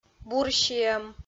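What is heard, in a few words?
"бурсиӧм", Komi, female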